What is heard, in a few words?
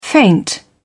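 "feint", British English, dictionary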